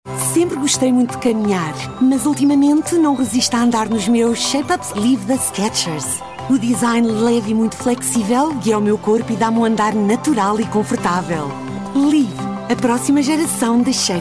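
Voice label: PTEU Commercial, European Portuguese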